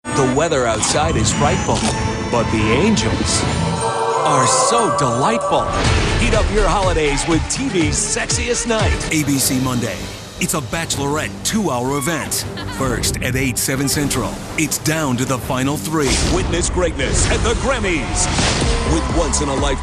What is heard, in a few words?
promo male variety